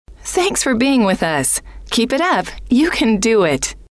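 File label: thanks For Being With Us Keep, female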